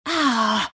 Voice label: temp pain; templar assassin